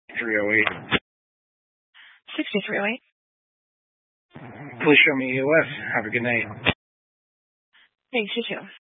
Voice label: Sheriff Dispatch; Mesa County